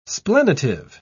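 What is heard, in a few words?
"splenitive", British English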